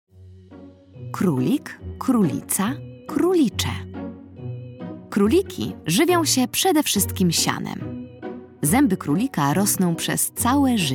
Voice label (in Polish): narracja; czasopismo mis; lato; krolik krolica krolicze ciekawostka